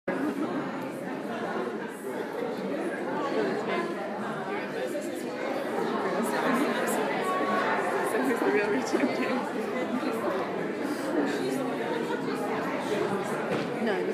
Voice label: Sound of people talking; From my cousin’s bat mitzvah